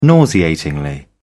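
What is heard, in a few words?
"nauseatingly", British English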